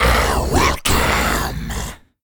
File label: deathmetal welcome; Crypt of the NecroDancer